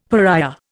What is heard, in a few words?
"pariah", Indian; Dictionary